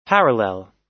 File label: "parallel", us